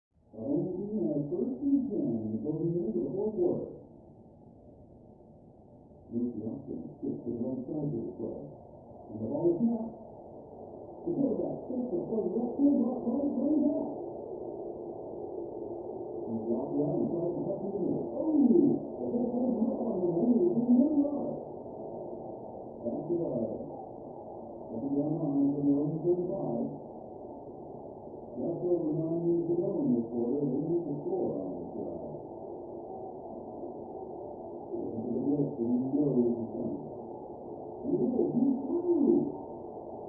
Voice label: Television; Football Game With Announcer, Crowd And Players. Through Thick Wall.; sports